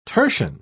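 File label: "tertian", English, British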